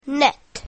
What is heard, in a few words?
"net", english; grade1; basic sounds 1